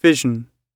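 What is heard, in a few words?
"vision", British English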